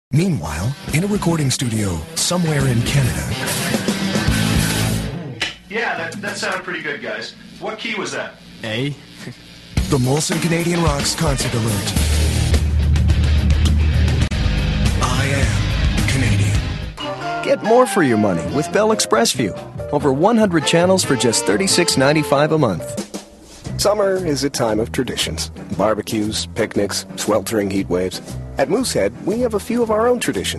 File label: American Male